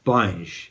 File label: "panZ", Romani